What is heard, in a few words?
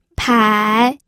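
"pai", Mandarin Chinese, third tone; pinyin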